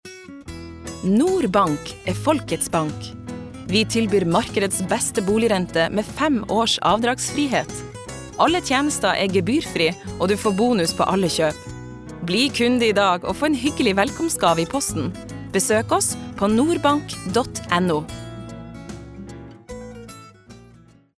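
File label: Norwegian commercial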